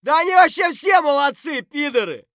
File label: mutter; Escape from Tarkov